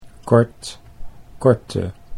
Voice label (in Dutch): "kort korte", Dutch